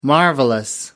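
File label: "marvelous", British English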